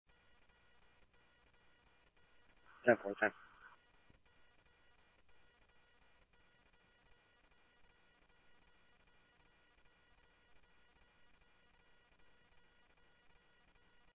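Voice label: police department, scanner audio trunk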